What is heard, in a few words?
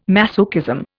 "masochism", Indian